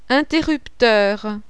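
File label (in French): "INTERRUPTEUR", French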